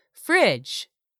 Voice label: "fridge", English; 3000 words